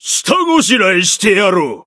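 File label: Voice variable chant, Japanese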